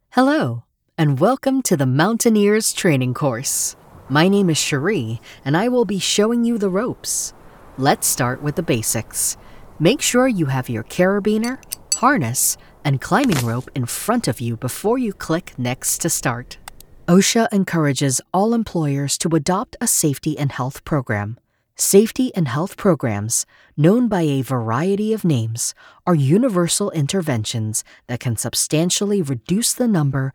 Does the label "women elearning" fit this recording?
Yes